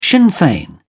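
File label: "sinn fein", British English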